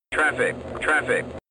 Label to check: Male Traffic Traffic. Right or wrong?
Right